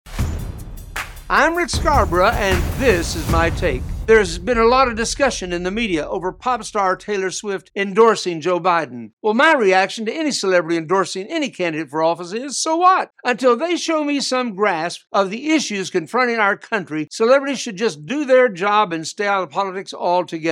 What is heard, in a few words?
Radio Spot; Rick Scarborough